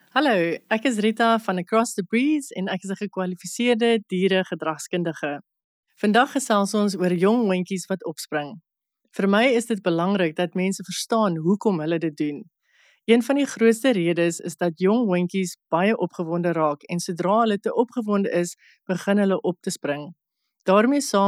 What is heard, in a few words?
Afrikaans